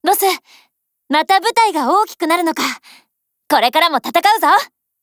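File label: COMBINE Japanese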